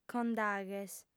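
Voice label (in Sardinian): "condaghes", female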